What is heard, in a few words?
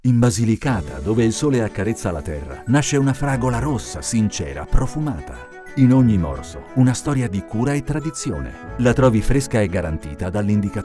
male italian radio commercial; Devine Voices